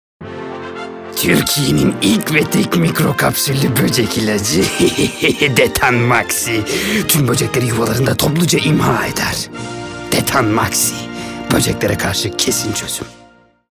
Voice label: animatik karakter, seslendirme

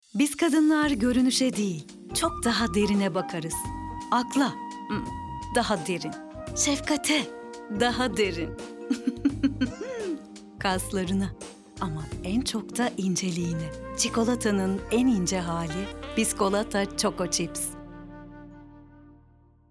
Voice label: sicak enerjik esprili, seslendirme

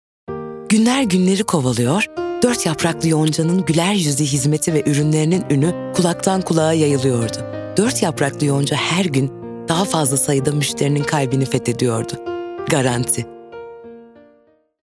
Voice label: sicak sakin

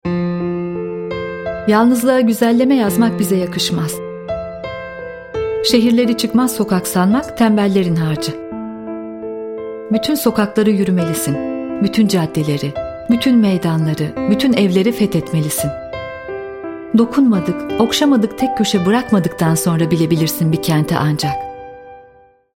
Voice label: duygusal motive

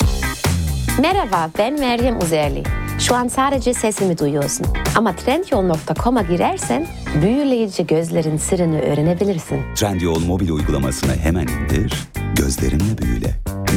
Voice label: enerjik tempolu, seslendirme

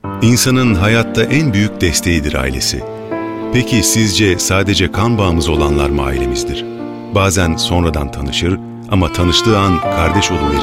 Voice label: sicak samimi

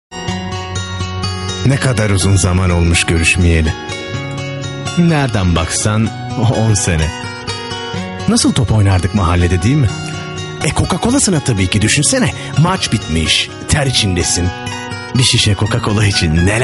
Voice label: duygusal motive

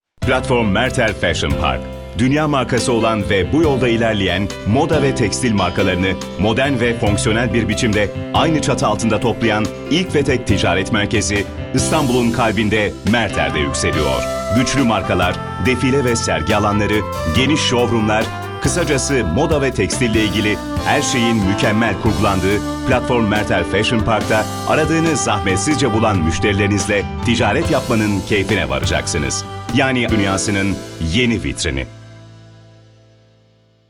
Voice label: tanitim

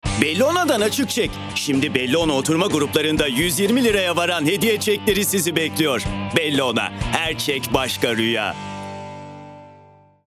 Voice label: kampanya tempolu, seslendirme

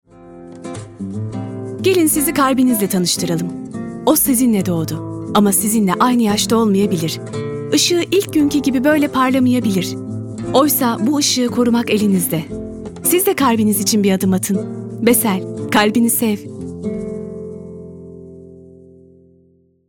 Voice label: duygusal motive, seslendirme

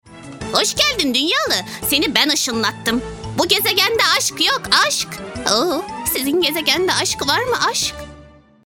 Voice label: animatik karakter; seslendirme

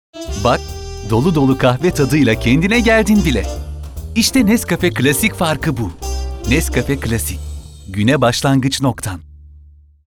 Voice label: sicak samimi

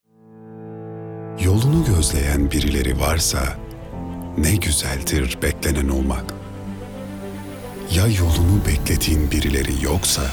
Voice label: duygusal motive; seslendirme

